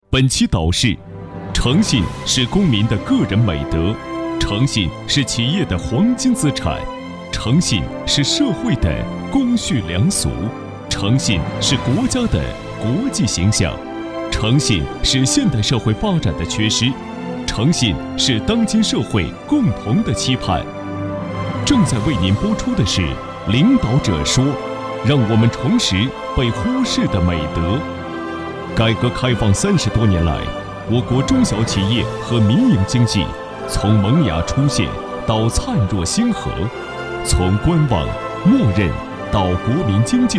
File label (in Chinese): 男, 电视节目, 导视; cctv证券资讯频道-领导者说